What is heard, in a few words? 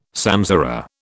"samsara", British